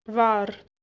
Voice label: "varr", Albanian

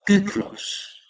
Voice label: "kuklos", Ancient Greek

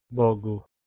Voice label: "bogu", Proto Germanic

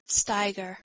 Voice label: "steigr", Proto Germanic

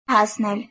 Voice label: "hasnel", Armenian

